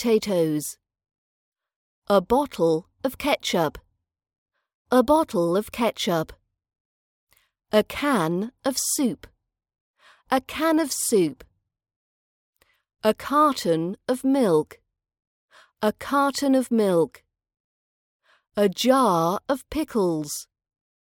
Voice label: vocab practice supermarket packaging RP British accent training